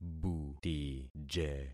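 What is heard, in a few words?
"butije", concatenated syllables; Affixing experiment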